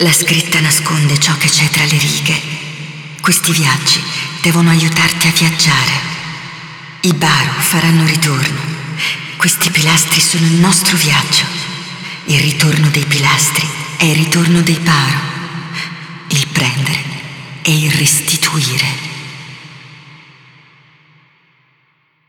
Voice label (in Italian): Speech, ita; moul; bhro Yeesha